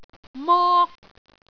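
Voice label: "mok1", Cantonese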